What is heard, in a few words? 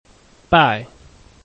"bai4", Chinese